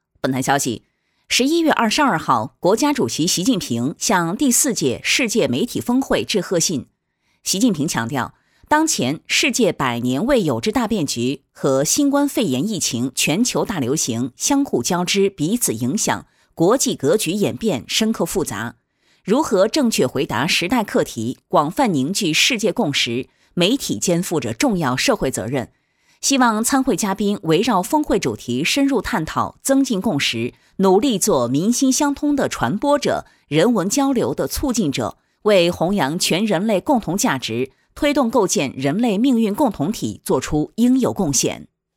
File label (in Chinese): 新闻样音